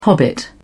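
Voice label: "hobbit", British English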